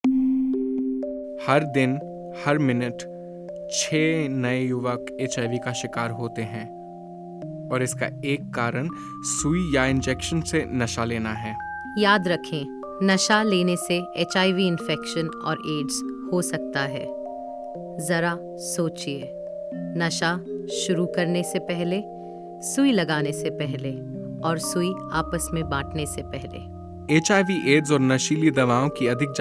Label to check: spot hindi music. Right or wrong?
Right